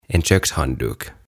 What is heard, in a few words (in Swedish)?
"En Kökshandduk" Keittiöpyyhe